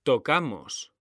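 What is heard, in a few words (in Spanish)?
"tocamos", Spanish; mekanta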